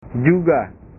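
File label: "juga", Indonesian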